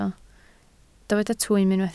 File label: "twym", Welsh